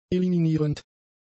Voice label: "eliminierend", german; adjectives

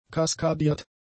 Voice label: "kaskadiert", German; adjectives

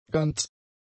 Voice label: "ganz", German; adjectives

